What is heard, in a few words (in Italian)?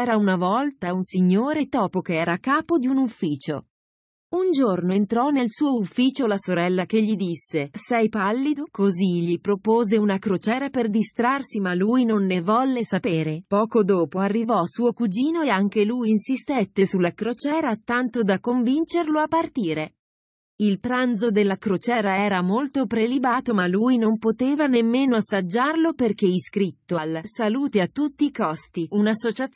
libro audio